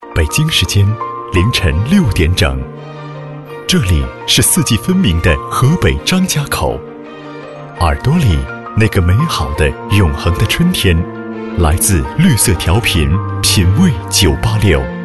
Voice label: mandarin commercial